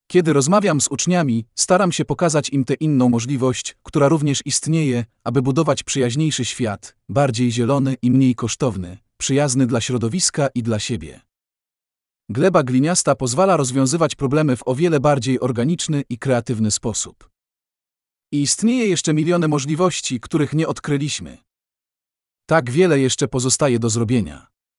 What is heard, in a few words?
POLISH